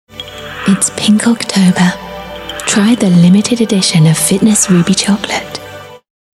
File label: fitness hypnosis